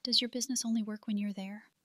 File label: voice calm smooth